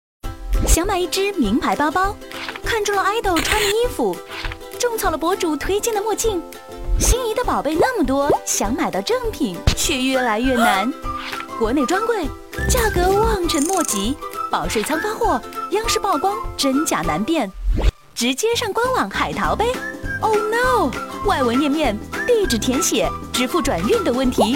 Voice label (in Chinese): 女; 西洋汇